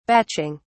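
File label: "batching", British English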